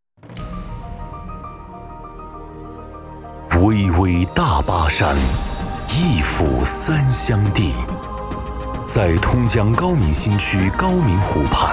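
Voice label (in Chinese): 宣传片大气; 大巴山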